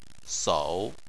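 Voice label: "sou", Chinese, third tone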